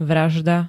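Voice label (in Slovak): "vražda", Slovak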